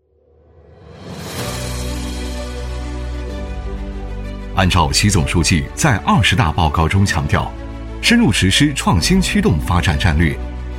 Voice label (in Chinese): 男 政府专题 城市大脑迭代升级 大气磁性; 时尚科技; 沉稳大气; 男181-政府专题《城市大脑迭代升级》-大气磁性